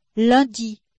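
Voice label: "lundi", French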